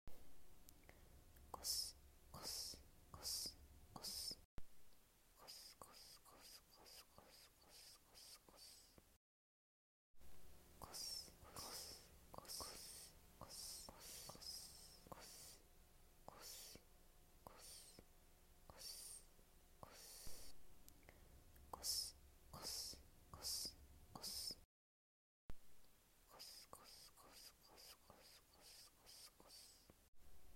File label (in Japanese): こすこす両耳オノマトペ